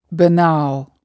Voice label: "banal", spoken